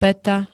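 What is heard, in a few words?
"pata", Slovak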